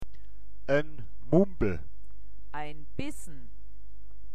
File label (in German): mumbel, mundart; woerterbuch